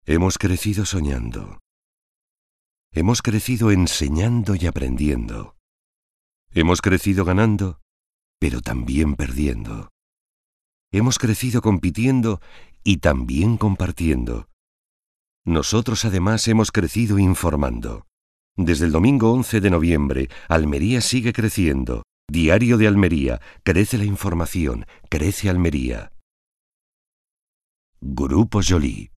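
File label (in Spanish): locutor, Spanish dubbing voices; locutores de doblaje españoles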